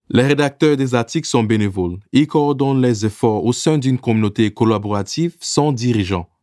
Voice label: Infografika FR

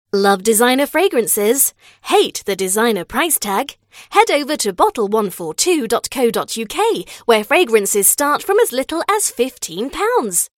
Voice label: Commercial

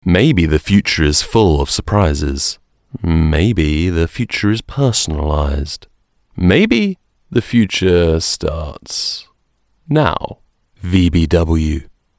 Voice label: Commercial

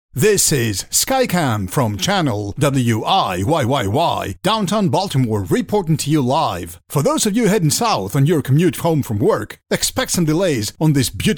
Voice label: Commercial Infographics

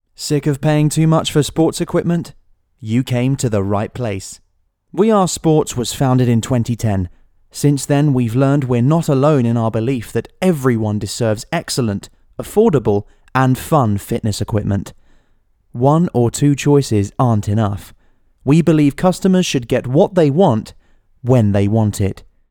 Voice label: Commercial

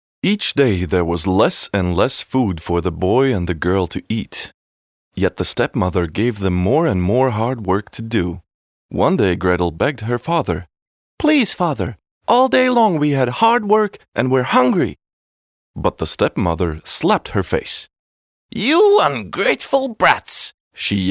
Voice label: ENG Pasaka

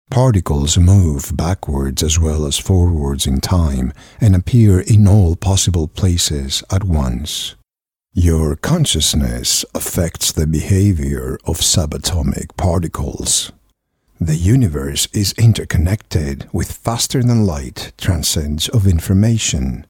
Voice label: Audiobook Infographics

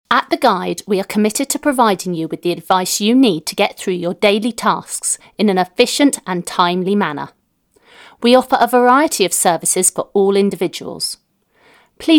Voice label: Commercial

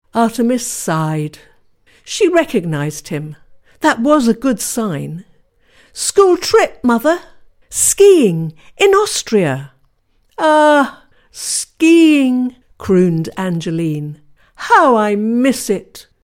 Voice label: Audiobook

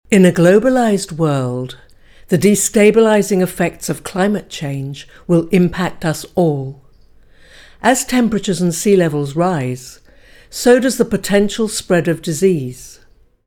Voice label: Narration

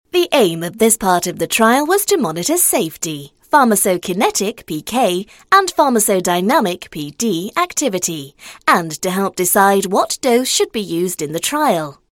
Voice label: Narration

